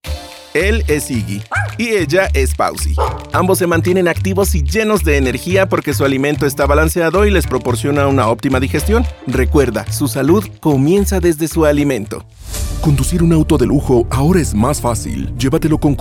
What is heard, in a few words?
spanish latin american commercial, latin american spanish voice actors